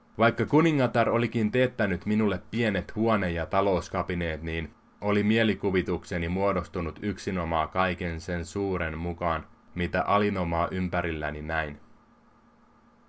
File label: finnish